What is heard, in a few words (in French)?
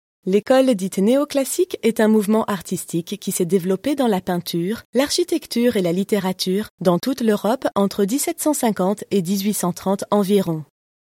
Audioguide Musee